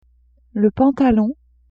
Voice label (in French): "pantalon", French; vetements